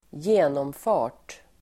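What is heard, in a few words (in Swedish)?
"genomfart", Swedish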